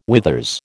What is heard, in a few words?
"withers", American